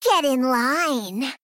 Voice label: Female Gnome; hearthhead